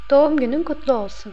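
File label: happy birthday turkish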